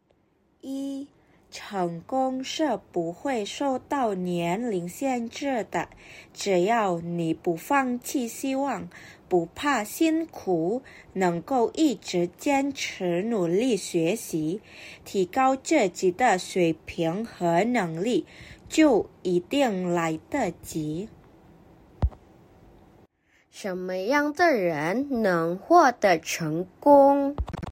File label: Mandarin; mandarin placement test hsk4